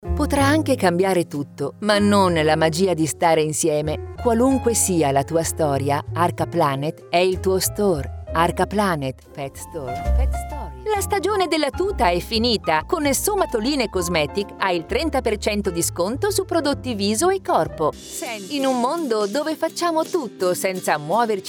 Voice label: italian commercial

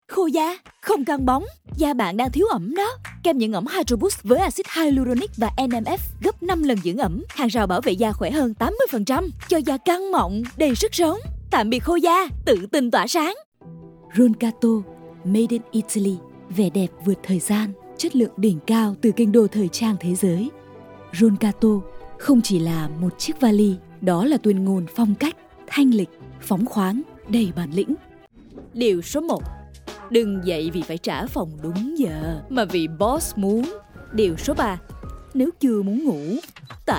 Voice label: vietnamese commercial

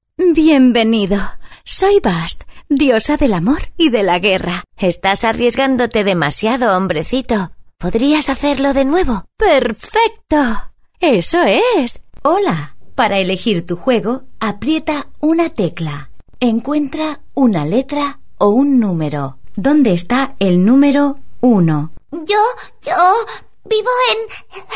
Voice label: spanish video games

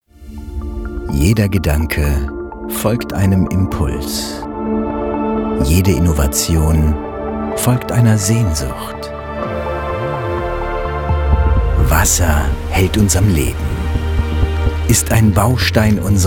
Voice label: german automotive; professioneller zweisprachiger Sprecher

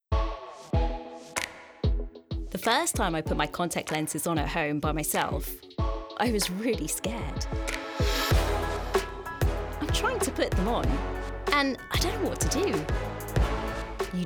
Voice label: english british promos

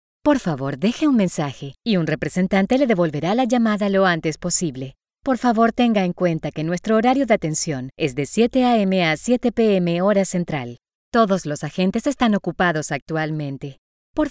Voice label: spanish latin american ivr

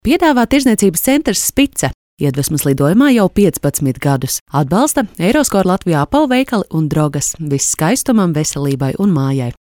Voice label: latvian commercial

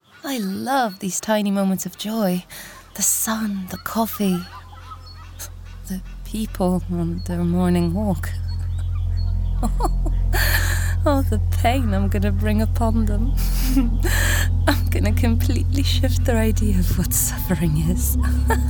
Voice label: english video games

